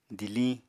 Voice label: "diliñ", Apatani